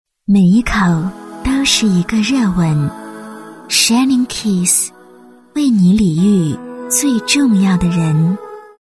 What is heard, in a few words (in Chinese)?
女 广告 温柔; 为你礼遇